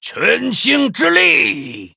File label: Male Dryad Attack; zh